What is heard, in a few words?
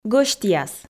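"гӧсьтъяс", Komi, female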